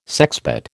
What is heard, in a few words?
"sexpot", American